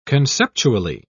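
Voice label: "conceptually", British English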